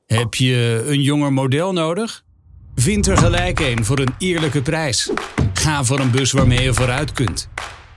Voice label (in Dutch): commercial acteren komisch